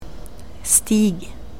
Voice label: "stig", norwegian